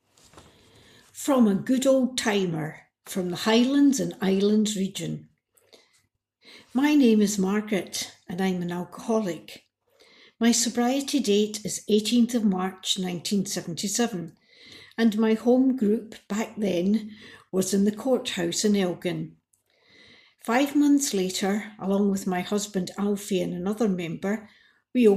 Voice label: Female audio